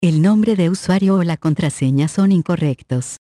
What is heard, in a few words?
Username Or Password Incorrect, es MX; Hackintosh T480; AXEFIAudio